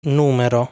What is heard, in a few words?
"Numero", Italian; lesson 2 numbers